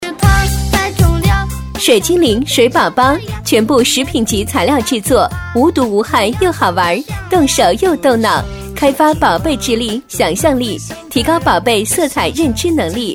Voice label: 【促销】儿童用品

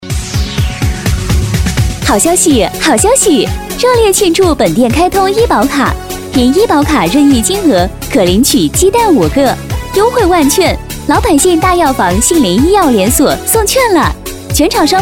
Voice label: 激情促销; 老百姓

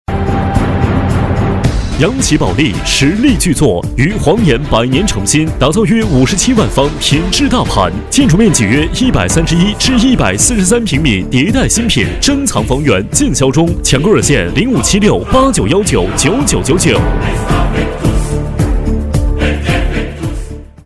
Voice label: 广告-央企保利楼盘

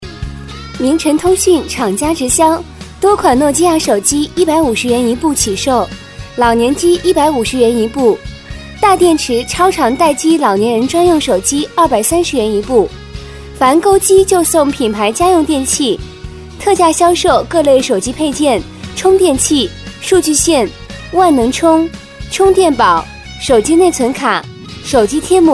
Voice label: 促销; 名臣通讯

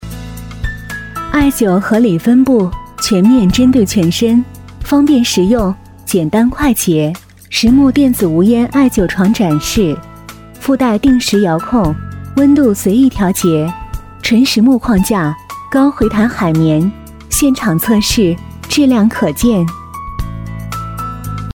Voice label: 产品介绍; 艾灸合理分布

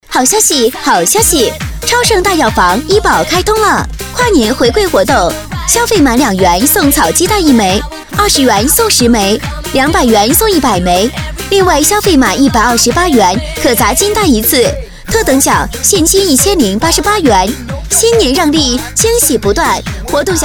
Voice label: 激情促销 药房

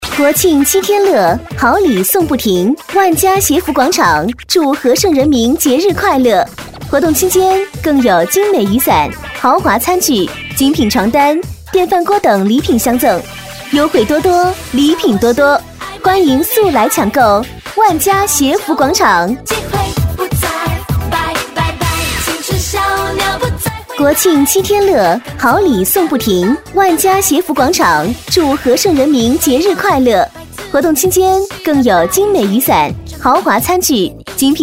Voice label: 【激情促销】鞋服广场

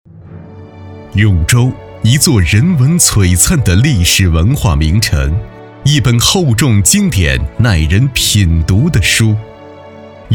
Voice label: 永州介绍 深情大气

